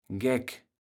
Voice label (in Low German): "Geck", kaevels platt